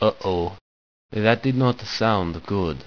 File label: uh oh; svencoop; roy